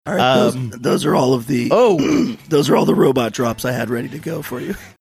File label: speaking hoarse voice